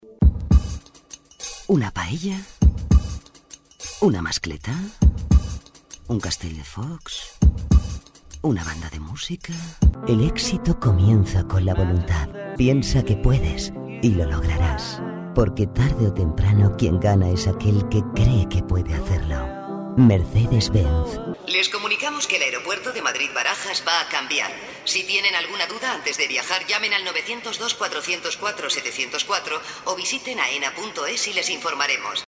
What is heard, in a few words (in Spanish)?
comercial